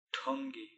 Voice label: "Thongi", Palula